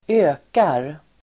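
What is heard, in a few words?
"ökar", Swedish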